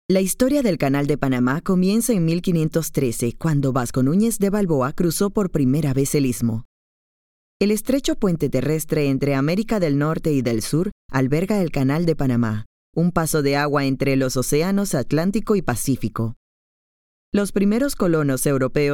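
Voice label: Latin American Spanish, Panama accent, audioguide; panamanian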